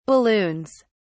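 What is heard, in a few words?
"balloons", British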